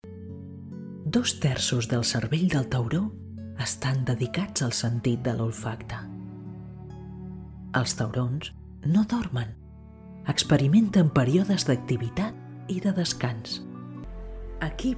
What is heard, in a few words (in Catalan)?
docu CAT, locutora